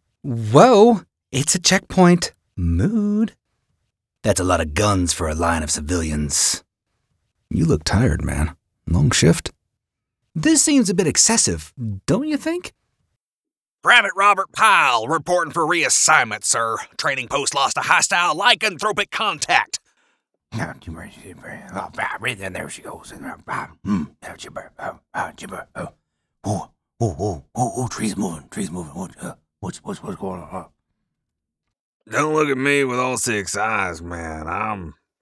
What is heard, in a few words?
Game Character voices